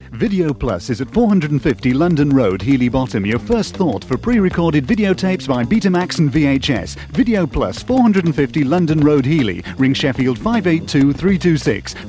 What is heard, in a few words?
Radio Hallam Advert; Videoplus